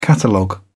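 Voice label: "catalogue", British English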